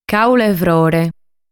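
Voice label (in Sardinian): "Càule De Frore", Sardinian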